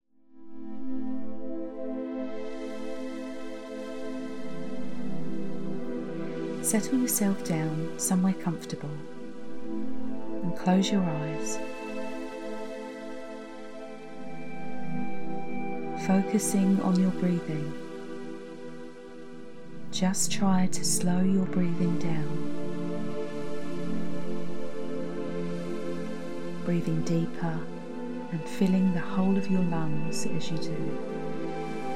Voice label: Self Healing Pool Meditation